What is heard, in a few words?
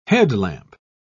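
"headlamp", British English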